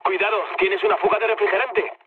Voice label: damaged water, mood low, speech; War Thunder